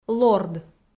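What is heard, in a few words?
"лорд", Komi, female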